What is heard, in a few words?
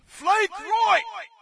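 British flank right; player; source engine; dod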